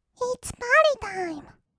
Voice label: いっつぱーりーたーいむ, kawaii voice; ASMR; 1200 kawaii voice!!; 音ゲー

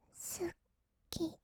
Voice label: "すき…", kawaii voice; 1200 kawaii voice!!; 色々なワンショット

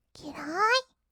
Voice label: きらーい, kawaii voice; ASMR; 色々なワンショット

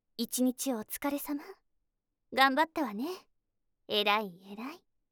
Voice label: システムボイス お疲れ様ボイス; ASMR; 輝夜

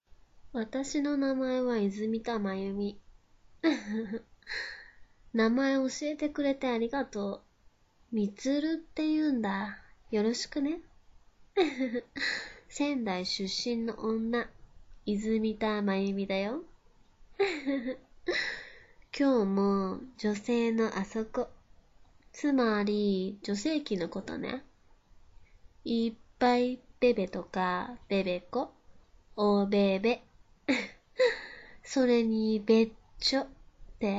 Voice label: 妄想方言淫語

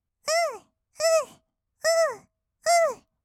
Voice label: うーうー, kawaii voice; 合いの手; 1200 kawaii voice!!; ASMR

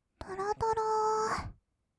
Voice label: とろとろー, kawaii voice; ASMR; 色々なワンショット

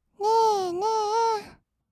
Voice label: ねーねー, kawaii voice; ASMR; 色々なワンショット

